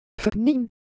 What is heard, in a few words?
Semai Shape; ideophones